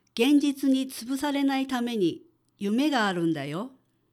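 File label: female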